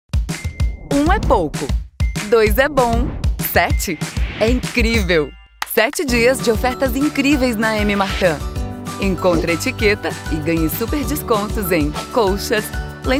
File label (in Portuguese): Varejo elegante natural animado